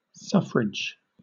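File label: "suffrage", British English; pronunciations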